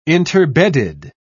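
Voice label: "interbedded", British English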